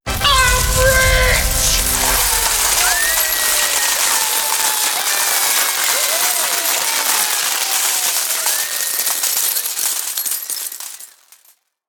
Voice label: I'm Rich’ shouted in jubilant male voice with falling coins, fanfare; and crowd cheering; Yelling